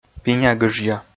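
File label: "пиня-гыжъя", Komi, male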